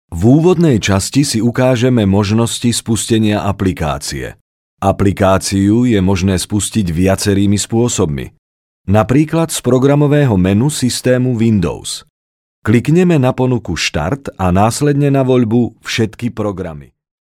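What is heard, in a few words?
Slovak, male, narration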